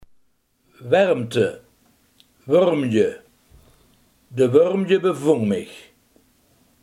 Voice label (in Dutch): "warmte", limburgse